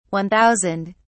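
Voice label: "one thousand", English, British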